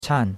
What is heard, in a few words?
"chan4", chinois; Cours de Chinois